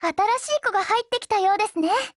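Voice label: BUILD OVER Japanese, Voice; GF Alarm; Danuvia 43M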